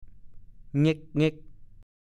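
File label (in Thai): "เง้ก ๆ" ภาษาโคราช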